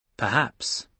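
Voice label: "perhaps", British English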